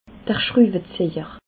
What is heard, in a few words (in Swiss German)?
"schruweziejer", alsace